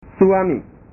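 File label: "suami", Indonesian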